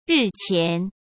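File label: "日前", Mandarin Chinese; chinese-flashcards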